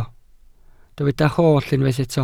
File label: "holl", Welsh